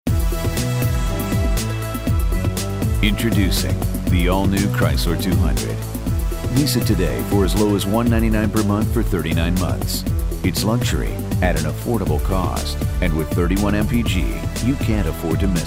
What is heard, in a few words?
Raspy